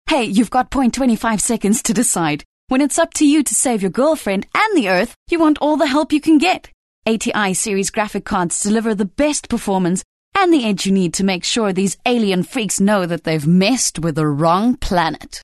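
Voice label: English South African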